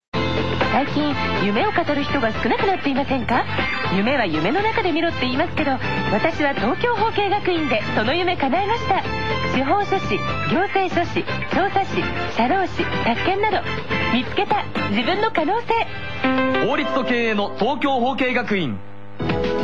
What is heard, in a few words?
radio ad